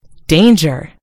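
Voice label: "danger", American English; gcompris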